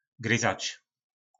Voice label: "Gryzac", nářečí Po našimu; Kousat

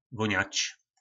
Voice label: "Vóňač", nářečí Po našimu; Vonět; Mjoł nogi z vóřtu.; Vrávoral (byl opilý).

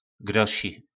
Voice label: "Kdoši", Slovník nářečí; Někdo

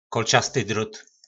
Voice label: "Kolčasty drót", Slovník nářečí; Ostnatý drát

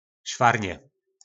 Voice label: "Švarně", nářečí Po našimu; Dost; Było tam tego švarně.; Bylo tam toho dost.

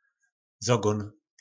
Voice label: "Zogón", po našimu; Záhon

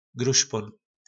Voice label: "gruspon", nářečí Po našimu; Zimostráz

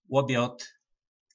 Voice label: "Łobjod", nářečí Po našimu; Oběd; Slovník nářečí Po našimu

